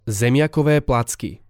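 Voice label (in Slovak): "zemiakove placky", Slovak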